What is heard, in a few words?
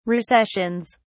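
"recessions", British English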